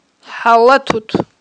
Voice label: "хIалатут", Aghul